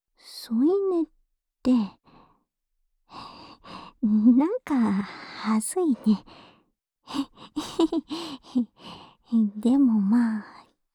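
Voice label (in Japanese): 添い寝（右）, ASMR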